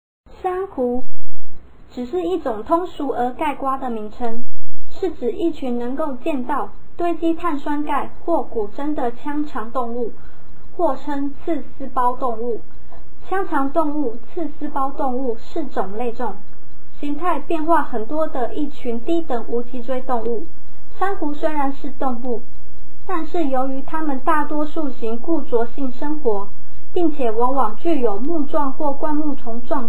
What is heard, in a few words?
kid